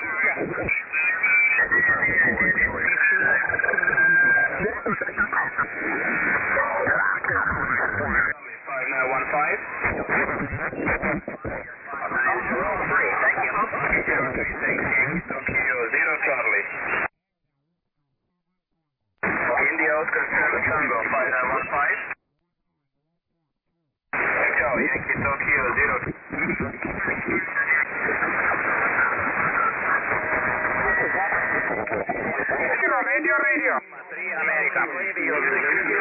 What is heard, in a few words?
LSB 7MHz; CQWW SSB